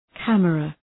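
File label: "camera", English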